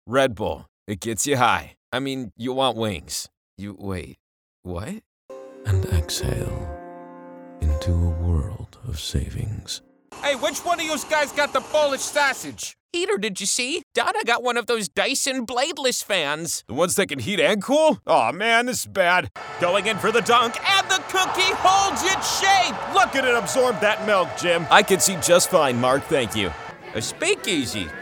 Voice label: character